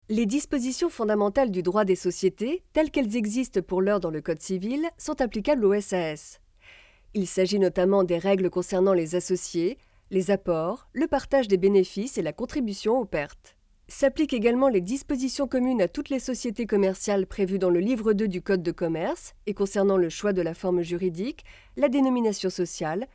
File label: e learning